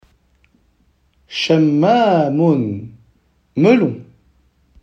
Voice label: melon en arabe

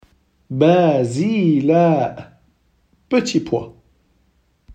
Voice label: petit pois en arabe

